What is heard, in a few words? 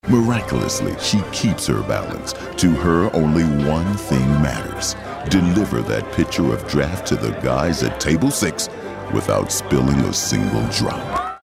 motivational